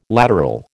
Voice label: "lateral", American